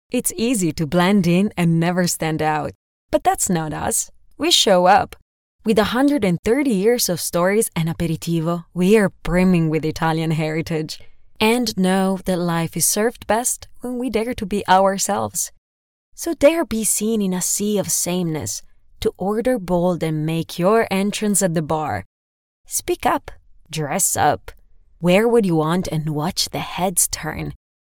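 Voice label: Italian, female, English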